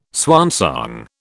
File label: "swansong", British